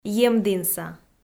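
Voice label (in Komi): "емдінса", Komi, female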